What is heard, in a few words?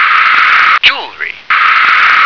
"jewellery", English